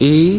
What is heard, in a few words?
"e", male British; Alphabet